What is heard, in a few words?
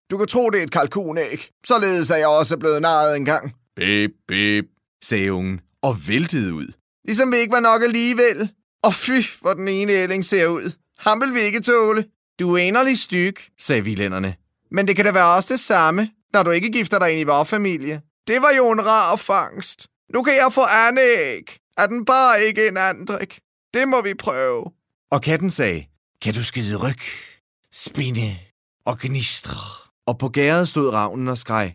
dk dub characters